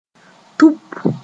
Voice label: "tʋp", moore

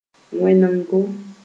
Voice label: "wẽonego", Moore

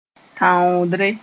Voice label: "tãoodre", moore; boil

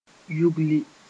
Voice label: "yugli", moore

